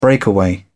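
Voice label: "breakaway", British English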